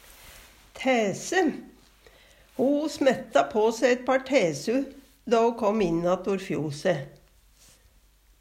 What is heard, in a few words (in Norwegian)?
tæse, Numedalsmål; Substantiv hokjønn; Personleg utstyr, klede, sko; Ho smetta på se eit par tæsu etter fjosstelle.